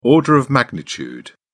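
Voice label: "order of magnitude", English, British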